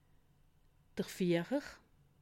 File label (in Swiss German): "fihrer", alsace